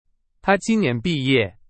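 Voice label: Chinese; hsk4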